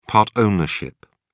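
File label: "part ownership", British English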